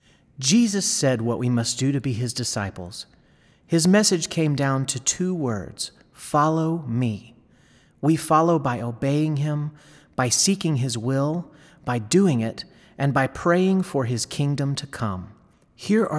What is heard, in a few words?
Narration; listening cd easter